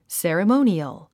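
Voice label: "ceremonial", English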